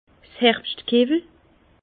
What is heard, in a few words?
"herbschtkewwel", Bas Rhin; vin